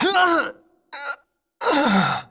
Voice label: Male; baldurs1